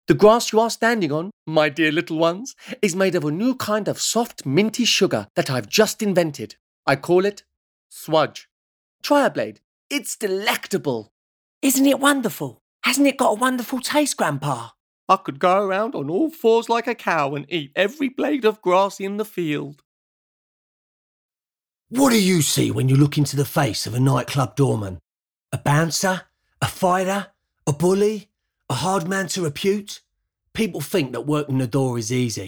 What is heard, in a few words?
Voice Over Audition